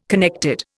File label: "connected", Indian